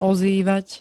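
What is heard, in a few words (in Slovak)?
"ozývať", Slovak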